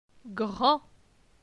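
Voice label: "Grand", French; phonetique; les consonnes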